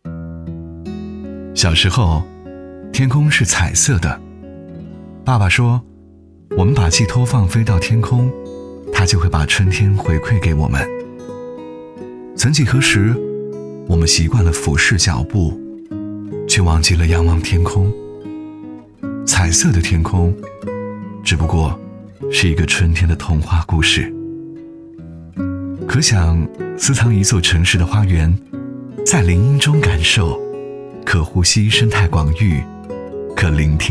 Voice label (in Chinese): 男 旁白 暖心; 林荫春天